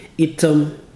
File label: crocodile, ibibio